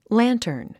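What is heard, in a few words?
"lantern", English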